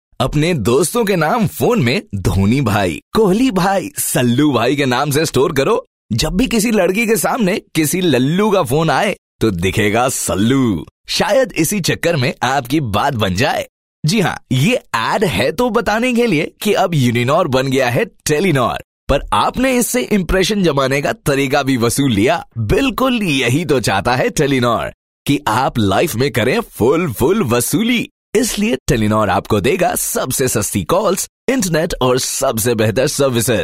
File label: Hindi, male voice